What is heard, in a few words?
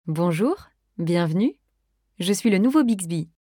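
onboarding provision greeting, French; Bixby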